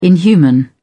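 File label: "inhuman", British English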